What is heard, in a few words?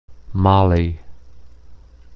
Male; nationscap